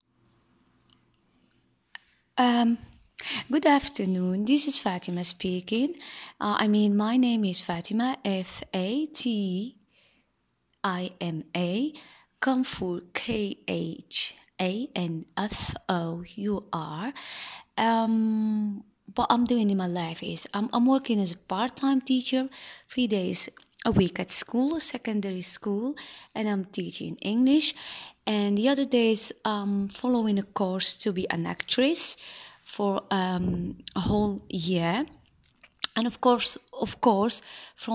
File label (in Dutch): Engels